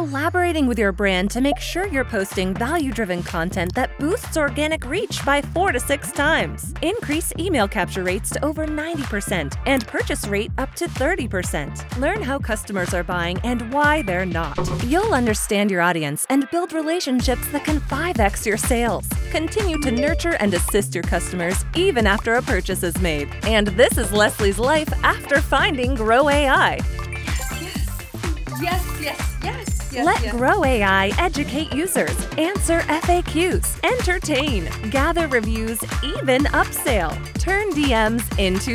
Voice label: Explainer Video Sample; Grow AI